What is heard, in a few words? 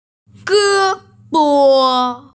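"ge1bo5", Mandarin Chinese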